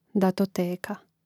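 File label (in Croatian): "datoteka", Hrvatski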